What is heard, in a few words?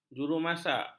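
"jurumasak", Malay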